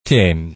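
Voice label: "dên1", Hakka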